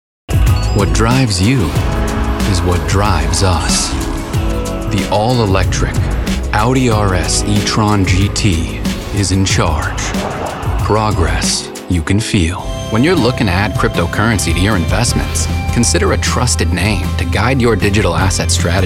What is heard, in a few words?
Commercials